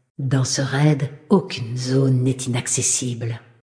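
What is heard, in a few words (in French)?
Female Flirt, voix françaises; Sacrenuit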